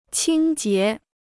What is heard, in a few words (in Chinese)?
"清洁", Chinese